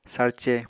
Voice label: "sárce", Apatani

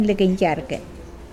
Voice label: back, Mokilese

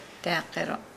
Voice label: Nukuoro; February

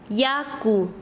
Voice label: Chanka, quechua; agua

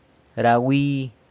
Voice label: "ra wi", San Lucas Quiavini; guavas

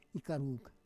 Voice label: "ikaruk", Roon

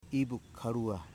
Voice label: "lake", Foe

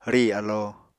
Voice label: "rì alo", Apatani

